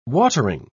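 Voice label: "watering", British English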